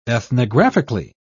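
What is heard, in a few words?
"ethnographically", British English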